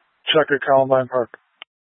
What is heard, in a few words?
Incident Tac 3, Scanner audio recording